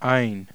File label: ayin, heb